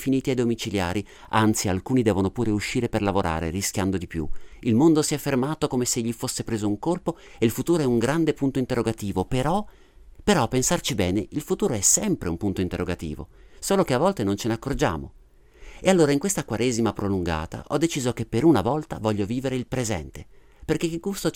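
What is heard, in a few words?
Italian, General; GoLocalise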